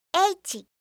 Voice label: "h", alphabet